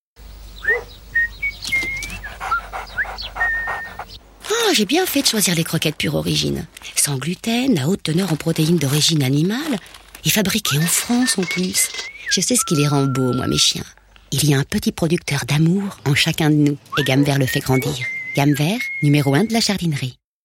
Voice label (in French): spot TV voix douce; GammVert